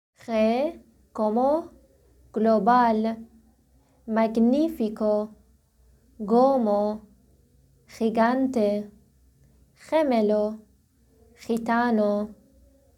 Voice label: spanish